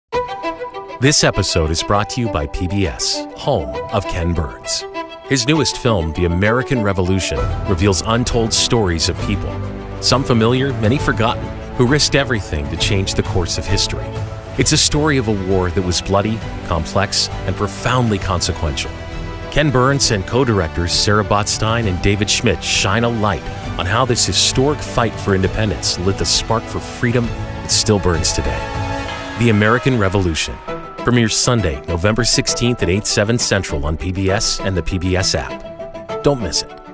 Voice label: Habillage Vorschauvideos; NATIVE PROFISPRECHER